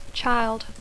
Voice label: "child", English